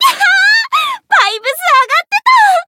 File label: win, jp